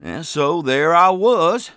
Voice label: conversation, English Voice

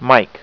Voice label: alfa M

